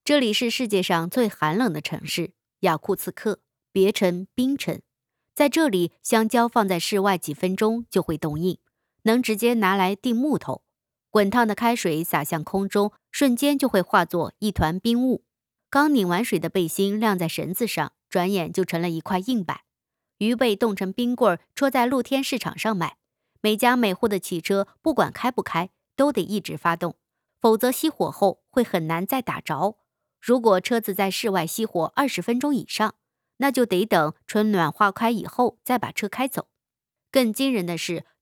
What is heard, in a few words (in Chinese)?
纪录片解说